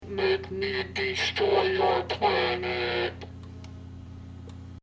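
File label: Voice Change, Voice Changer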